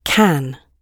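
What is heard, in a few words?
"can", British English; slovicka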